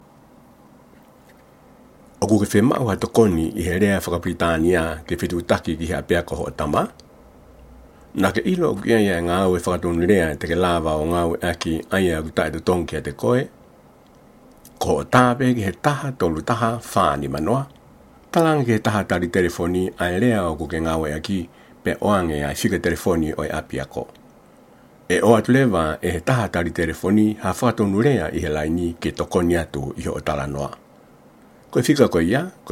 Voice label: tongan; public schools; going to a public school